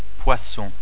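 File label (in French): "poisson", French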